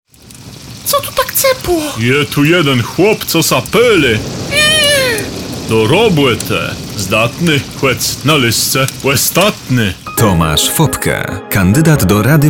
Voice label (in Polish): spot radiowy